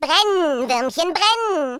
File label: fireball, speech German